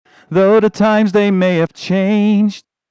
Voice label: echorec vocal